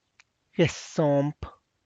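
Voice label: Tlacochahuaya Zapotec; Atzompa clay pot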